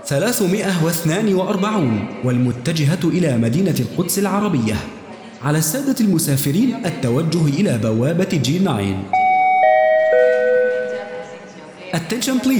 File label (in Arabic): نداء المطار